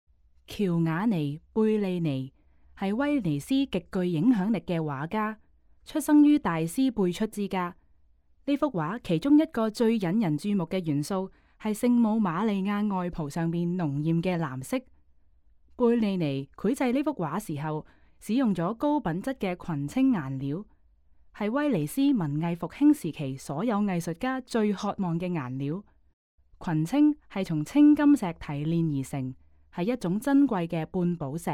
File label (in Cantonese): Canto; audio guide